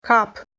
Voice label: Albanian "kap"